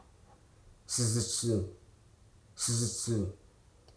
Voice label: "indolence", Hruso

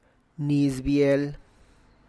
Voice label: "niz bial", Tlacochahuaya Zapotec

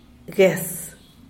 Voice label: "gues", olla; Díaz Ordaz

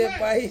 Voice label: "pai", Ansus; to run

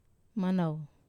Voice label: "manau", Wamesa

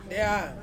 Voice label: "dea", Ansus; cold

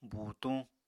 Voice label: "buutuñ", Apatani

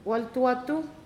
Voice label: "ninth day of month", Pingelapese

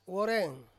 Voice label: "woreng" mouth, Ansus

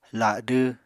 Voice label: "ladw", Apatani

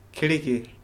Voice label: "pull", Korku

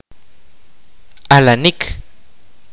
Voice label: kaqchikel; give birth

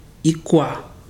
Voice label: "machete", Ibibio

